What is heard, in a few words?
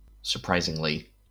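"surprisingly", US English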